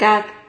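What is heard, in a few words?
"cad", Spanish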